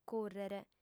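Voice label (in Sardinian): "cùrrere", female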